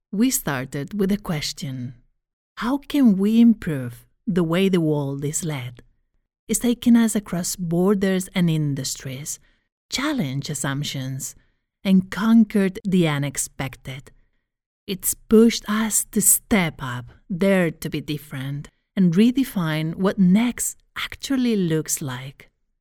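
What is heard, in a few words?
Corporate inspiring natural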